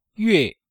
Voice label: "yue4", chinois

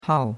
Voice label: "hao4", chinois; Cours de Chinois

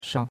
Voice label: "shao5", chinois; Cours de Chinois

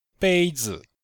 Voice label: "bei1zi5", chinois; Cours de Chinois

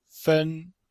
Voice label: "fen1", chinois; Cours-de-Chinois

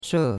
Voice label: "she4", chinois; Cours-de-Chinois

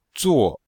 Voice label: "zuo4", chinois

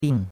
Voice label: "bing4", chinois; Cours-de-Chinois

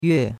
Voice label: "yue4", chinois; Cours-de-Chinois

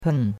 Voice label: "pen4", chinois; Cours-de-Chinois

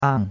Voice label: "ang4", chinois; Cours de Chinois

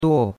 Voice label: "duo4", chinois; Cours de Chinois

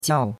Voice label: "jiao4", chinois; Cours-de-Chinois

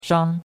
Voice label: "shang1", chinois; Cours-de-Chinois

